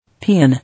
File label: "Peon", English